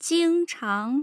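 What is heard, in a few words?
"jingchang", Mandarin Chinese tone pair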